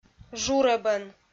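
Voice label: "журӧбӧн", Komi, female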